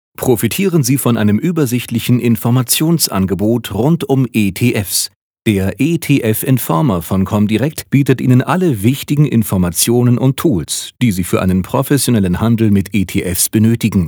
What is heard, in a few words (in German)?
Sprecher Werbung